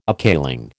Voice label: "appealing", American